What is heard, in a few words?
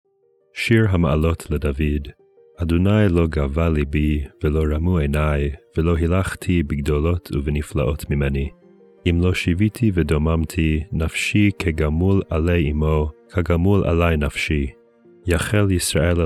poetry and prayer psalm hebrew